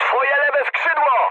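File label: Polish left wing mood high; speech; War Thunder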